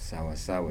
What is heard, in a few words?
"just right", Kibembe